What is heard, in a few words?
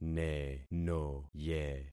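"nenoye", concatenated syllables; Affixing experiment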